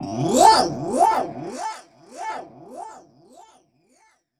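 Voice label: effects talk, Funky Vocals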